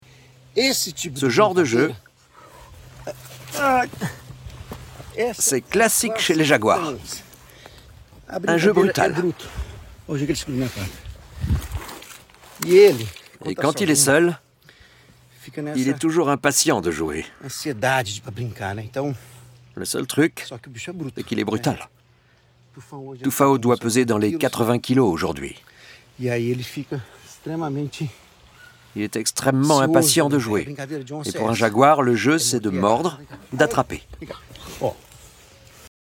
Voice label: Voice Over; LES JAGUARS